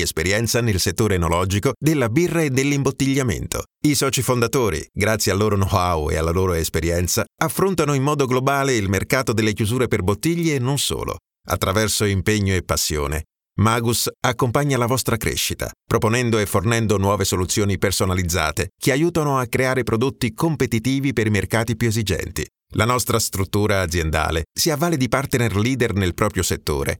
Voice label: italian corporate